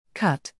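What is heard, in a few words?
"cut", English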